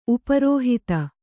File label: "ಉಪರೋಹಿತ", kannada